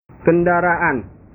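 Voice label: "kendaraan", Indonesian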